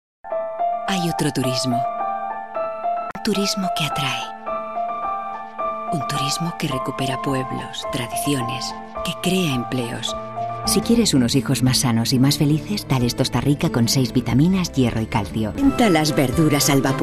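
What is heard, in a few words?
promos spanish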